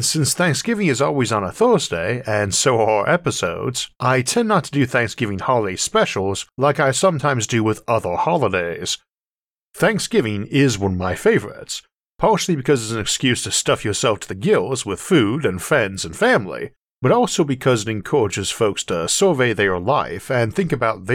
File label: how colonizing space can save earth narration only; isaac arthur